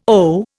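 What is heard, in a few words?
"owe", Indian English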